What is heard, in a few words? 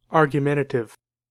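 "argumentative", US English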